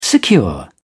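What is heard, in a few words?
"secure", British English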